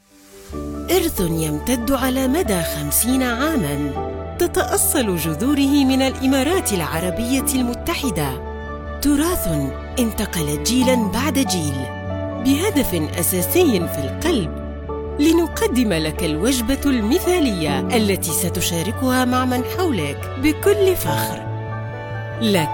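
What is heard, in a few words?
MSA Egyptian, voiceover; Showreel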